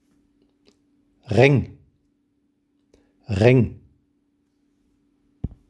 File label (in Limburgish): Dialect schoon